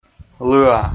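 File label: "лыа", Komi, male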